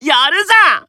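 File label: Voice Emotion Praise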